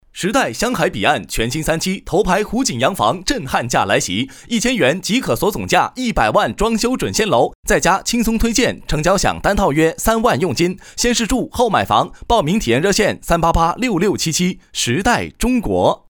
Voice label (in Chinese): 房地产广告